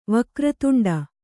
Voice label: "ವಕ್ರತುಂಡ", Kannada

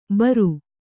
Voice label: "ಭೇರಿ", Kannada

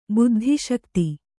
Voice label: "ಬುದ್ಧಿಶಕ್ತಿ", kannada

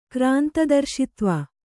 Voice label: "ಕ್ರಾಂತದರ್ಶಿತ್ವ", Kannada

